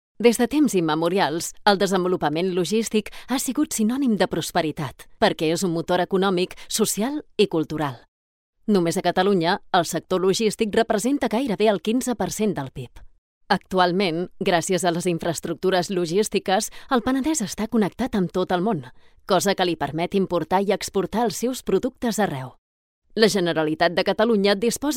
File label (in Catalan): catala corporatiu; penedes